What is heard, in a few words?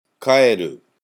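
"kaeru", Japanese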